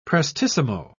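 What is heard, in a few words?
"prestissimo", British English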